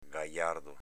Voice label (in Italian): "gajardo", dialetto veneto